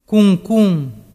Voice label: "cuncun", dialettu

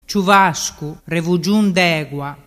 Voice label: "ciuvascurevugiùndegua", dialettu

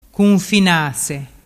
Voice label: "cunfinase", dialettu

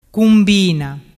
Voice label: "cumbina", dialettu

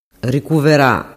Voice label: "ricuvera", dialettu

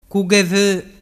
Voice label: "cughevo", dialettu

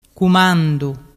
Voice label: "cumandu", dialettu

